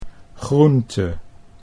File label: "groente", Dutch